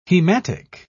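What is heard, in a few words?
"hematic", British English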